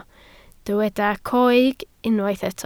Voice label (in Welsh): "coeg", Welsh